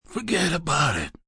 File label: Forget About It, Mafia, gangster; Talking Sound Effects; Sound Effects:Voice; VoiceBox